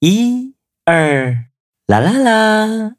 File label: battle, zh-hant